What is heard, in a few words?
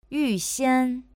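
"yuxian", Chinese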